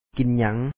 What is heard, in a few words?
"ກິນຫຍັງ", Lao